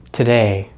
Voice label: "today", American English; easypronunciation

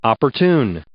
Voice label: "opportune", English

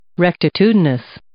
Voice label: "rectitudinous", English

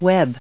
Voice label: "web" noun

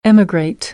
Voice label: "emigrate", American; English; ə - ɪ

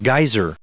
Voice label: "geyser", English; noun

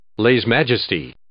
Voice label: "lèse-majesté", English

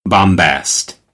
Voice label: "bombast", American; ɑː - ɒ; English

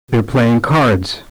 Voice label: They're playing cards Non-Compound, Stress

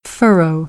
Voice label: "furrow", American; AmericanBritish

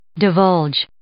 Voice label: "divulge", English

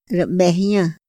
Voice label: "ra mëhña", Otomí; Días de la semana; INPI; INALI

